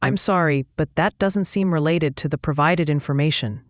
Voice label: question english